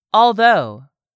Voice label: "ALTHOUGH", english; 3000 words